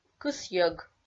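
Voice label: "кысйӧг", Komi, female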